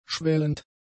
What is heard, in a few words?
"schwellend", German; adjectives